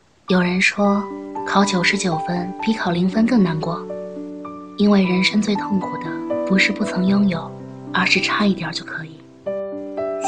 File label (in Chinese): 老阿姨